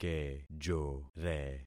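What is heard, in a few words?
"kejore", concatenated syllables; Affixing experiment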